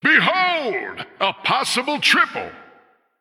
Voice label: Male Human Triple

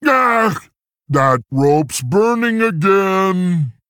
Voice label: Male Tauren

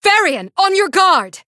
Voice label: Female Blood Elf; Valeera

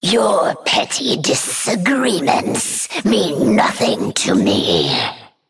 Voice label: Female Naga Idle

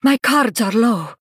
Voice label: Female Draenei Low Cards

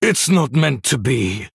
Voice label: Male Human Concede

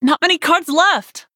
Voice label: Nonbinary Night Elf Low Cards

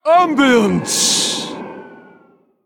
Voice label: Female Elemental Trigger

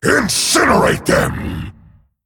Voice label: Male Dragon Attack

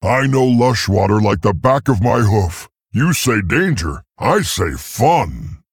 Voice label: Male Tauren; Guff

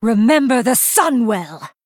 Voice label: Female Blood Elf Attack

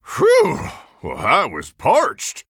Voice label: Human Emote; Reno Jackson; Hydrate

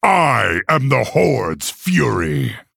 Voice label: Male Orc Threaten

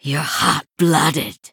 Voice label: Female Sanlayn Emote Well Played

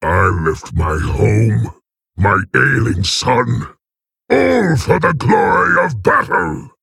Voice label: Male Orc Idle; Grommash; Jaina Mission4

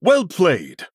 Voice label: male human, "well played"

